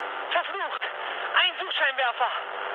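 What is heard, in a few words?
German searchlight mood med, speech; War Thunder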